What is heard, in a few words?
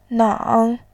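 "nang", Mandarin, tone 3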